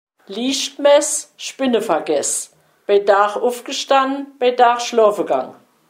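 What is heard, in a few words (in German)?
Kinderreim, O-Ton Hunsrück; lichtmess spinne vergess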